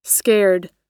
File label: "scared", pronunciation